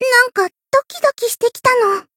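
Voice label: encounter fear, Japanese; lele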